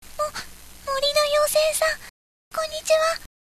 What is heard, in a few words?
girl